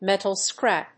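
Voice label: "metal scrap", English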